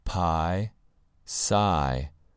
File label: "pie" "sigh"